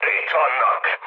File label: enemy detected, speech hun; War Thunder